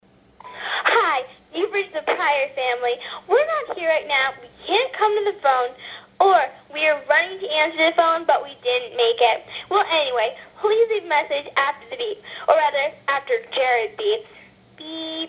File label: answering machine message